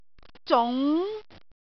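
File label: "zung2", Cantonese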